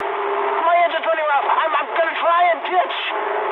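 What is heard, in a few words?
English damaged engine mood high; War Thunder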